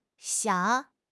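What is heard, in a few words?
"xiang3", Mandarin Chinese; HSK1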